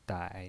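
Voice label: "dai", Mandarin Chinese, third tone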